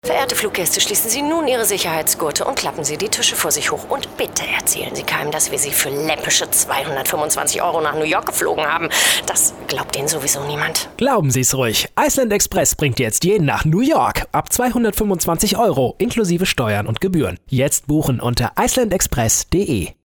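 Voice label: Funkspot, radio advert; iceland Express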